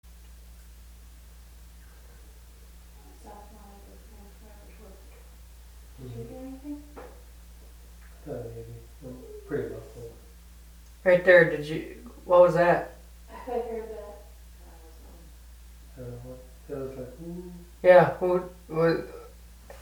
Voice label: Faint female voice; Rosenberry Inn; EVP